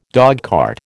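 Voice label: "dogcart", American English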